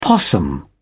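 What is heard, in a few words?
"possum", British English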